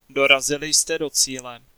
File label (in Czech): Arrive, Czech, male